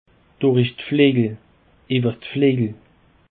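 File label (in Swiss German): "durchflejel", Alsatian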